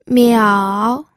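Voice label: "miao", third tone, pinyin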